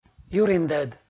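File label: "юриндӧд", Komi, male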